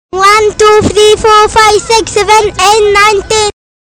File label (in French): anglais; one, two, three, four, five, six, seven, eight, nine, ten